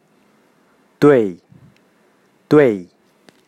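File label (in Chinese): "对" duei, Chinese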